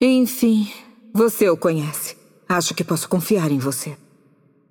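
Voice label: voice over, Brazilian Portuguese; Yunara Intro; bloom minigame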